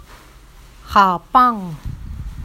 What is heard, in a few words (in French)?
chinois partir courir